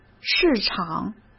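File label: "市场", Mandarin Chinese; hsk; chinese-flashcards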